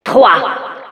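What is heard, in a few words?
Announcer begins 3 sec, French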